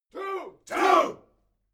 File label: military shout english two